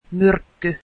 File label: poison, finnish